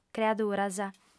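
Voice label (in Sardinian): "creaduras", female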